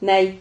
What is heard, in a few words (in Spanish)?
"nai", Silabas